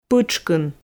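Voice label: "пытшкын", Komi, female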